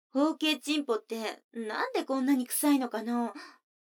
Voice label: 女の子台詞, ASMR

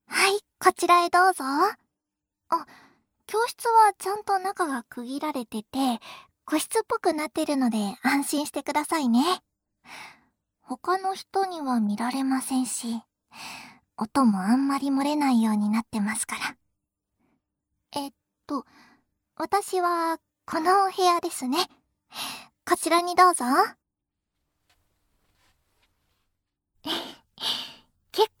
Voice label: ひざまくら耳かきでリラックス, ASMR

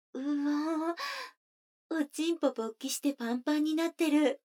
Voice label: 女の子 台詞

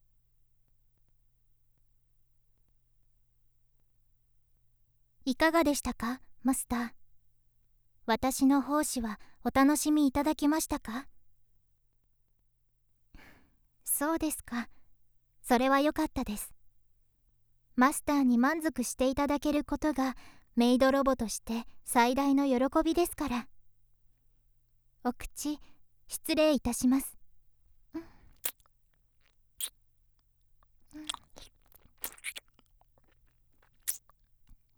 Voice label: 寝室（ピロートーク）, ASMR

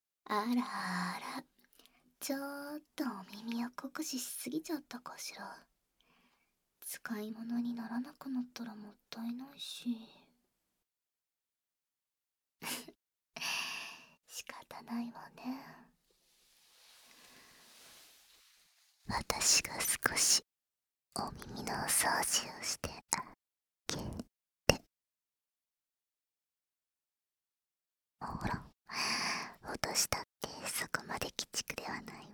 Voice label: 夢魔の耳かき（バイノーラルマイク 効果音あり）; 夢魔の世界～夢魔の触手耳責め地獄～

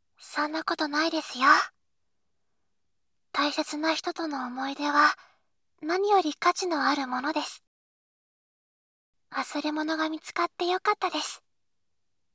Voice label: 駅員さんの耳かきボイスbgmなし, ASMR

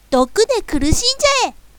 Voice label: "毒で苦しんじゃえ", 強攻撃

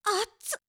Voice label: あっつ, 驚く少女

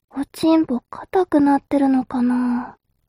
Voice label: mob 女子 台詞

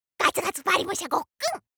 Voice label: システムボイス

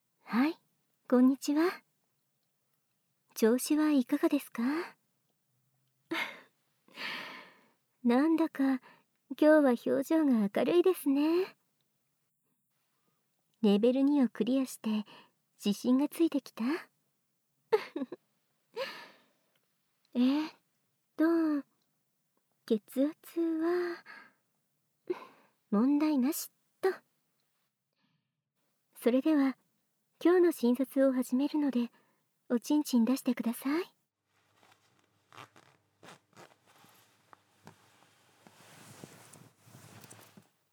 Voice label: 耳舐め&小悪魔淫語, ASMR; 【診察】

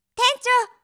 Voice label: 店長！, 妹系 ぶりっ子 元気な子

